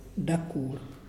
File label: "stone", Muyuw; Lae Unitech